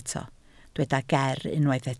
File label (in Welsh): "ger" Welsh